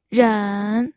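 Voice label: "ren", Mandarin Chinese, third tone; pinyin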